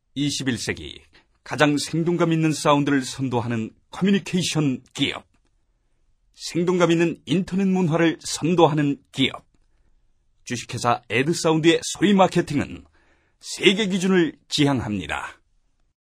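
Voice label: Korean, male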